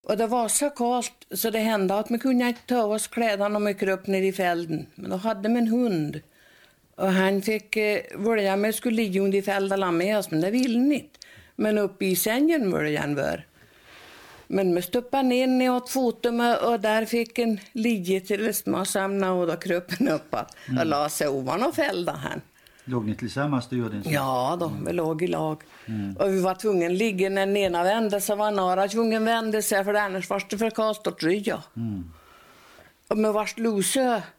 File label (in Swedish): Lillhardal, dialekter